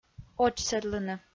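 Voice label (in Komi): "очсӧдлыны", Komi, female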